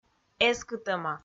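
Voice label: "эскытӧма", Komi, female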